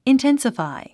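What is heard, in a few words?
"intensify", English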